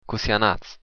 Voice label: how are you, occitan; Cossí anatz?